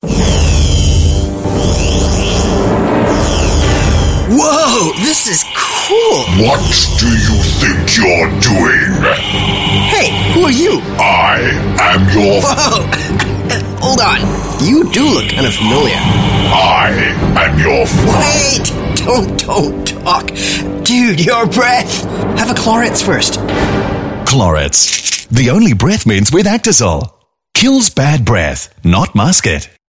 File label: Asian English, English Singaporean Male; Clorets Starwars